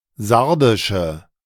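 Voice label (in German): "Sardische", German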